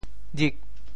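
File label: "jik8", Teochew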